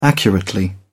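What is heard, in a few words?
"accurately", British English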